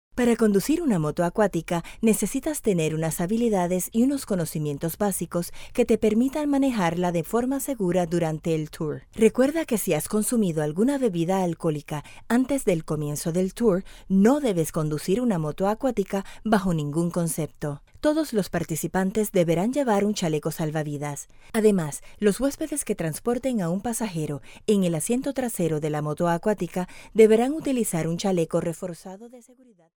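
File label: Spanish Latin America female narrative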